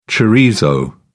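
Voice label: "chorizo", British English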